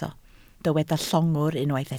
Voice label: "llongwr", Welsh